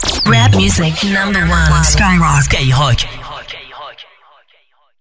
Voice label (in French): Jingle; SKYROCK